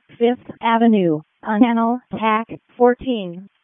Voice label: EMS ALERT, p25; wake p25